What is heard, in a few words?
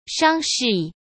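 "伤势", Mandarin; chinese flashcards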